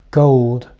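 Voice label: "gold", English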